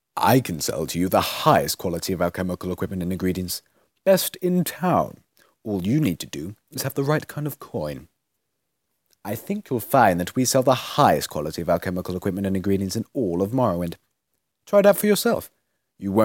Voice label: high elf deep, voice auditions